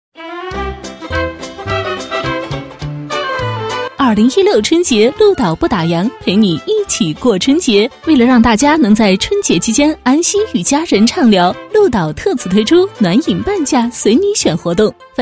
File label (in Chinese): 女 广告, 国语女声配音; 专题广告; 鹭岛